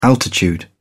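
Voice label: "altitude", British English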